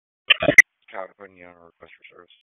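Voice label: Raleigh Police Southeast District Dispatch; wake p25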